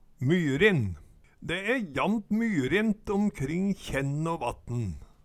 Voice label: myrin, Numedalsmål

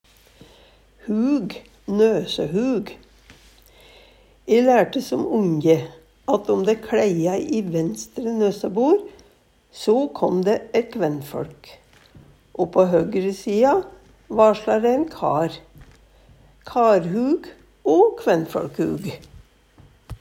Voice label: hug nosohug, Numedalsmål; E lærte som onje at om dæ kLeia i venstre nøsøbor, so kom dæ eit kvennføL

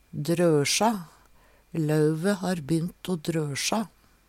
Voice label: "drosja", Numedalsmål

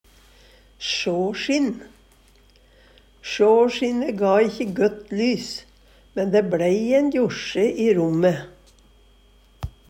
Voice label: "sjasjinn", Numedalsmål; Sjåsjinne ga ikkje gøtt lys, men dæ blei ein josje i romme.

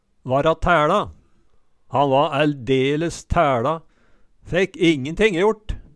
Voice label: "vara taeLa", Numedalsmål; Han va ældeles tæLa, fekk ingenting jort

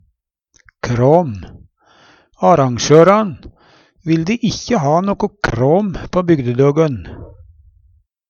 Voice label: kram, Numedalsmål; Substantiv inkjekjønn

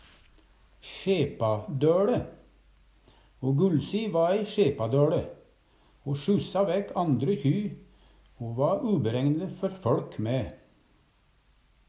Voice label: sjepadøLe, Numedalsmål; Ho Gullsi va ei sjepadøLe, sjyssa vækk andre kjy o va ikkje trygg før fæLk

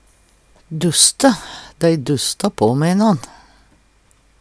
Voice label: dusste, Numedalsmål

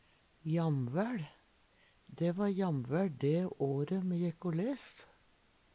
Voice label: jamvael, Numedalsmål; Adverb

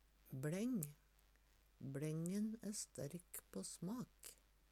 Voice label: bleng, Numedalsmål; Bleng vert ikkje bøygd.

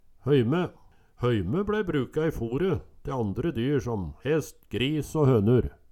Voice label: hoyme, Numedalsmål

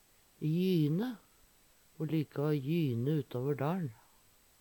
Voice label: "jyne", Numedalsmål

